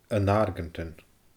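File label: "An Argantain", Scottish Gaelic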